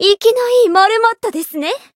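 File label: Voice encounter, Japanese; pom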